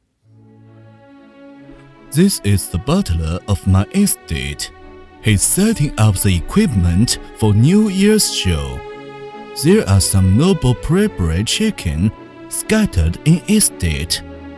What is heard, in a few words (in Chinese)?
英文 广告 搞笑 英音; 谷粒多